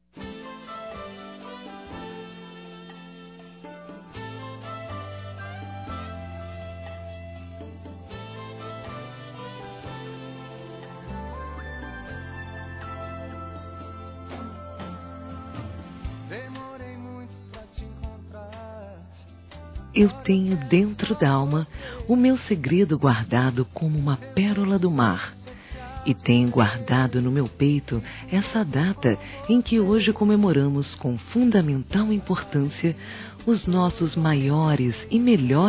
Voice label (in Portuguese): ANIV. CASAMENTO F, Telemensagens; Aniversários